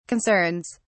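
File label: "concerns", British English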